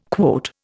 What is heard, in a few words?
"quote", Indian